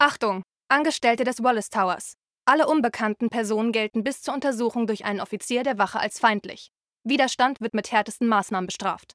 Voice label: Shooter PA Announcer